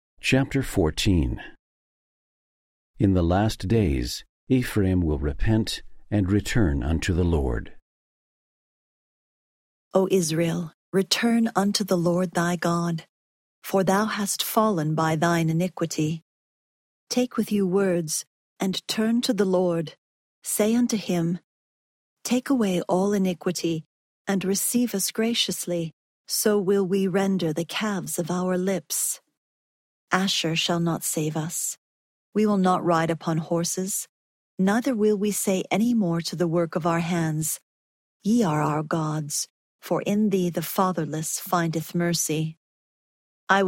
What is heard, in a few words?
hosea 14 female voice; the old testament